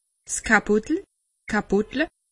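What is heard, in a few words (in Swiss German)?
"kapotel", Alsatian; medecine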